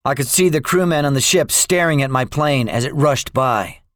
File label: debriefing, english; War Thunder